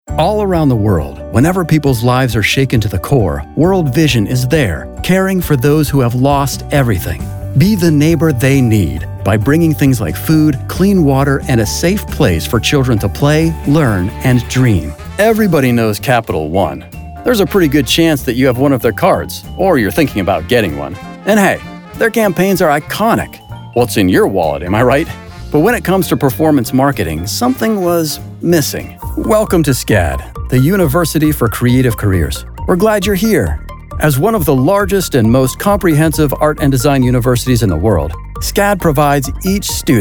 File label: vo narration demo